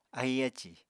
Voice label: "ahi ácì", Apatani